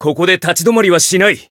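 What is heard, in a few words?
Voice Japanese; claude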